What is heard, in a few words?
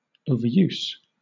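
"overuse" noun, British English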